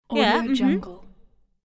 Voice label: female yeah confirm